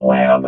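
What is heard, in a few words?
"lab", robot voice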